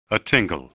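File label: "atingle", spoken word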